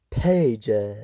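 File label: "pager", British English; pronunciations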